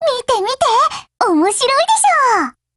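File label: OPERATION OVER Japanese, Voice; GF Alarm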